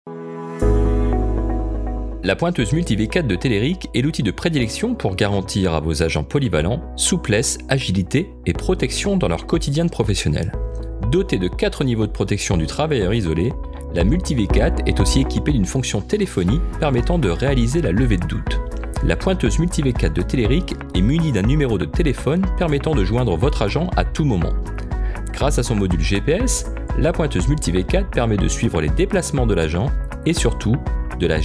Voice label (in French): VOIX OFF; MULTI